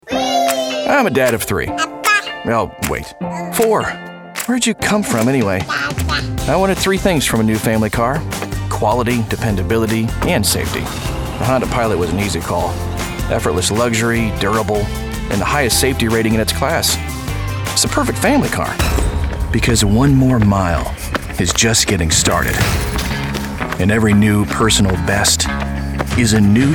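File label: Commercial, male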